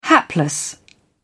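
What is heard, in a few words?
"hapless", British English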